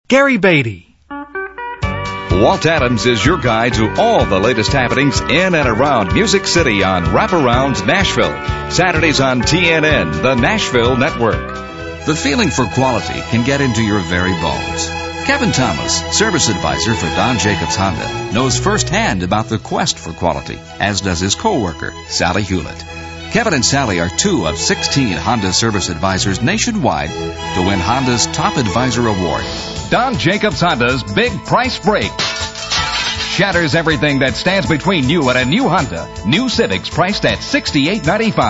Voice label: male; Spotland Productions